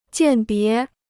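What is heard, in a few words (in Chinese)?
"鉴别", Chinese; jiàn bié; различать; отличать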